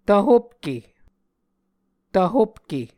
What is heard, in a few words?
"tvhopke", Muscogee